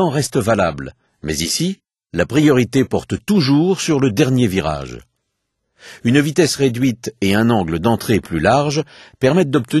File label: chicane french, speech; MotoGP 3